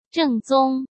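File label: "正宗", Mandarin Chinese; hsk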